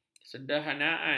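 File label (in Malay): "kesederhanaan", Malay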